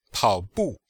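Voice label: "paobu", Chinese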